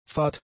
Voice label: "fad", German; adjectives